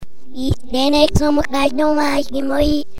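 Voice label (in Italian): Parlare come gli alieni (effetto reverse), Frase modificata; I bambini, con l'aiuto dell'insegnante, registrano e rielaborano la propria voce al comput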